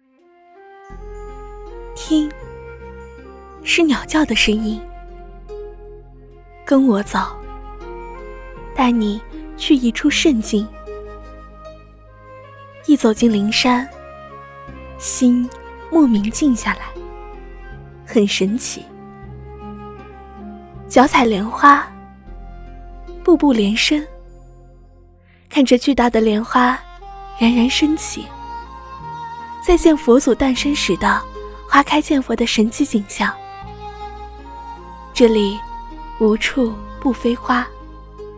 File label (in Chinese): 女 宣传; 灵山